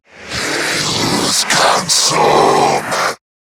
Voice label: Attack, en; Zariman Wraith